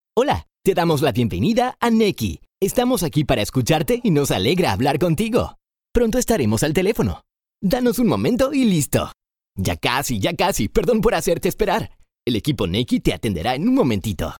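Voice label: Spanish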